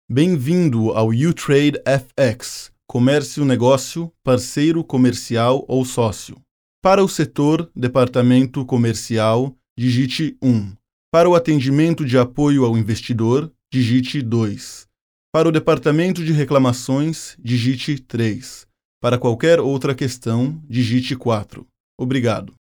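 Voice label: Portuguese Brazil; קריינות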